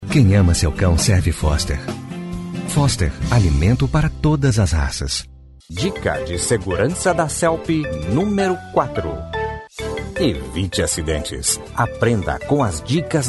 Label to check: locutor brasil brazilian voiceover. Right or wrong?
Right